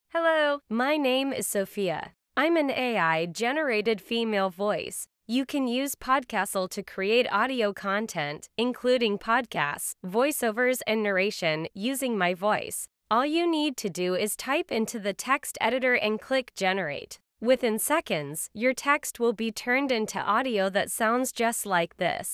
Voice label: Female Voice Sample